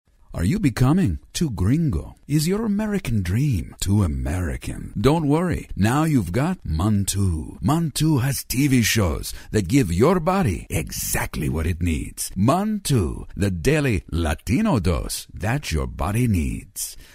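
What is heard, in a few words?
Spanish Flair Announcer, commercials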